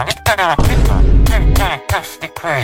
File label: Villager rage; meme